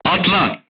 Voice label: voice message leave vehicle, crew tanks turkish

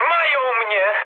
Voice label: aircraft destroyed mood med, speech pol

